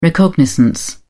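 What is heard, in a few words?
"recognizance", British English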